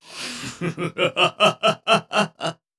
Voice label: voice monster

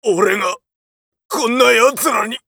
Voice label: macho down, voice

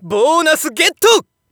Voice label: Narration GAMBLE Bonus Get

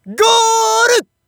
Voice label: Narration GOAL; Hanyou

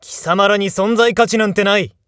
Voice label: jakigan win, voice

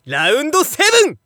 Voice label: Narration ROUND

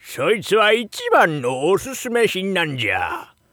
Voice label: shop oldman, voice

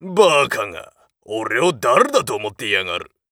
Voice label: macho win, voice

